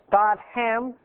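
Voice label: "got ham", English; phrase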